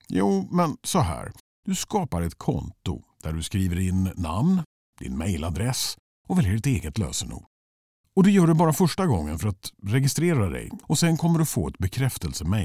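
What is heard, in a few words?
Swedish Male Conversational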